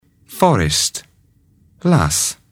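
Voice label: "forest", English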